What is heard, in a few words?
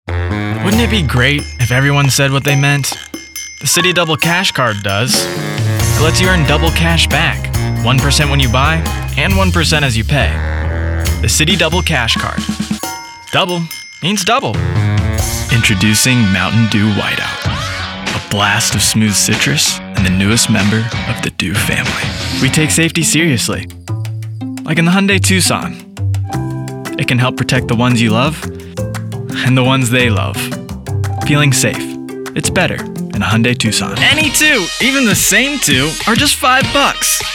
commercial